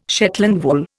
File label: "Shetland wool", Indian